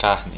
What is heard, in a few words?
meat, portuguese